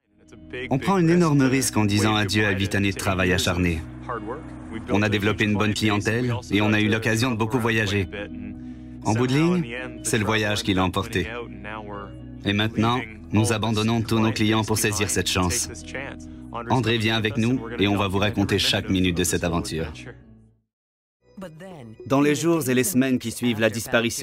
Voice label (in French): Démo Surimpression Vocale